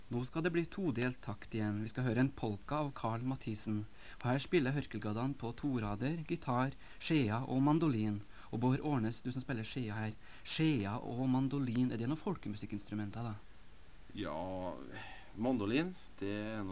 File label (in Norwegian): Tale